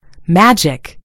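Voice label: "magic", US English; gcompris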